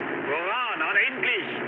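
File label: German enemy detected mood med, speech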